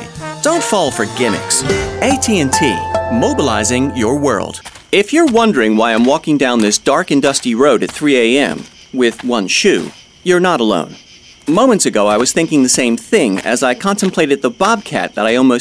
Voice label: Commercial